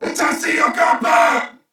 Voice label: Player Spotted, Corpus Venus Male; Dialog; Barks; Battle; Warframe; CorpusVenusMaleA